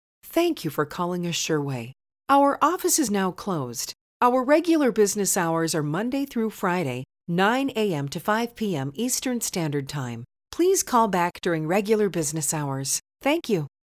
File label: Company Greetings; Assureway